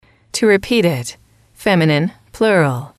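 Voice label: "to repeat it", English, female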